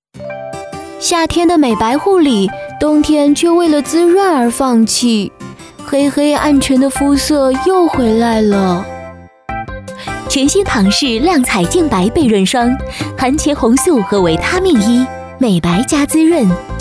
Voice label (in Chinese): 年轻时尚; 旁氏净白倍润霜